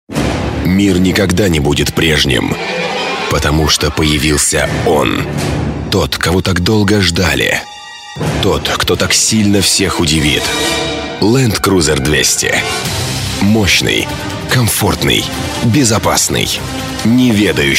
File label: Rus